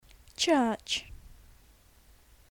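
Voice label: "church" English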